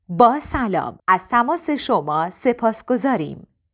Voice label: voice women